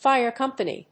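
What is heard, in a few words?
"fire company", English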